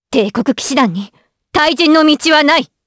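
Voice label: Vox Skill, voices, Japanese; kingsraid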